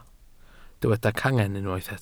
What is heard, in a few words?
"cangen", Welsh